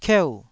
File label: "kieu4", taiwanese syllable